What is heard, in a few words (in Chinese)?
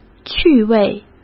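"趣味", Mandarin Chinese; chinese flashcards; hsk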